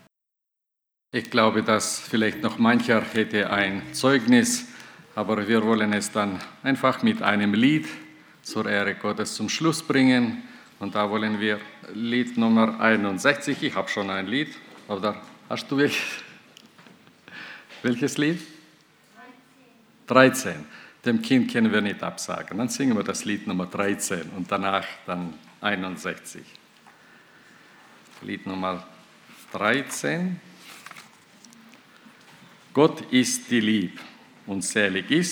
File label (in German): Ansage; Lagerversammlung 2018; Dem Herrn zuerst